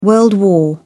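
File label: "world war", British English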